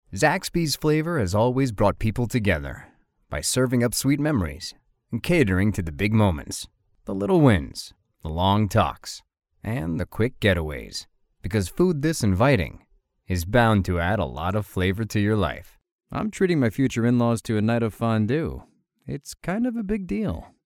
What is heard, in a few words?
male american voice over character, english american